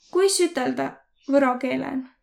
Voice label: how to say, voro

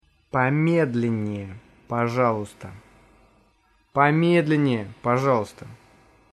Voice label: speak slowly, russian

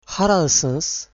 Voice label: where from Azeri; Haralısınız?

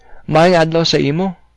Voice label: good day, cebuano

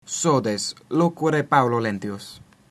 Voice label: "slowly", Latin

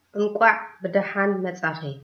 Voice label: welcome, tigrinya

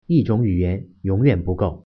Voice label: one language, mandarin

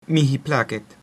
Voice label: "meet", Latin

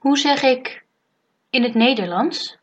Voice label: how to say, dutch

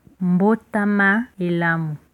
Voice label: christmas, Lingala

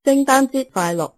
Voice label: christmas, cantonese

